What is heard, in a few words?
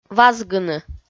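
"вазгыны", Komi, female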